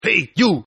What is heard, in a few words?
Toughguy Hey English, Tough Hispanic Male; Attitude Sound Effects